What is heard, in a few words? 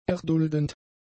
"erduldend", German; adjectives